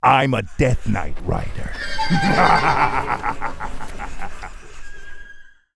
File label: Death Knight Angry; warcraft3